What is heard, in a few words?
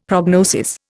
"prognosis", Indian; Dictionary